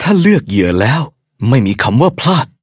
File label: levelup, th; cookie run